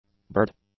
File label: "bird", English; nouns